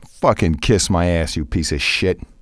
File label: cuss, male; kingpin; profanity